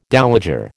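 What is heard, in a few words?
"dowager", American English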